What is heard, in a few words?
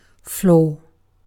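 "floor", British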